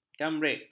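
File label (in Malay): "kambrik", Malay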